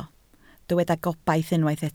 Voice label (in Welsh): "gobaith", Welsh